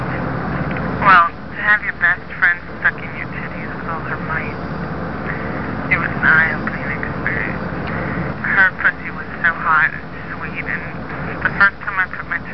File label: lesbian phone talk, silly lo-fi orgasms